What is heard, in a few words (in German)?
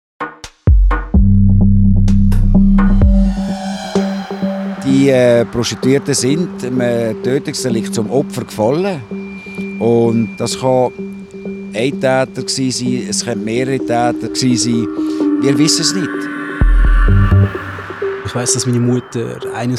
TRAILER